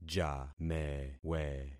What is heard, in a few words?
"jamewe", concat syllables; Affixing experiment